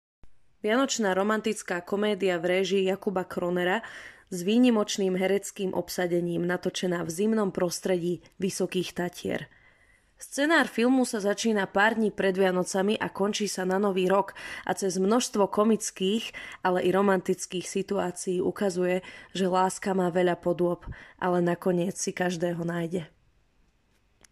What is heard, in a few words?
voice Sample SK